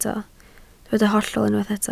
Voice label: "hollol", Welsh

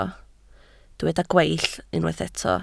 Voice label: "gweill", Welsh

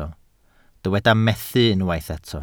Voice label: "methu", Welsh

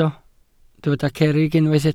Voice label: "cerrig", Welsh

